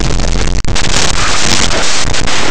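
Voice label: "vasija", Spanish; laboratori fonetica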